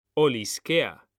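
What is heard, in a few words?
"olisquea", Spanish, male; mekanta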